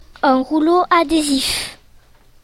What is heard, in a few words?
"klebestreifen", French; classroom